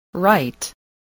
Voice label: "right", English spelling